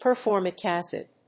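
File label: "Performic acid", English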